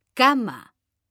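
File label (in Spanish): "cama" Spanish, female; mekanta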